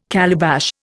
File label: "calabash", Indian